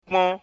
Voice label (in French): "kpon" ouvert, mina